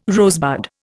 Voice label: "rosebud", Indian

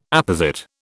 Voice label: "apposite", British

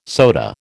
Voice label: "soda", American

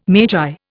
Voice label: "magi", Indian

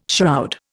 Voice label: "shroud", Indian

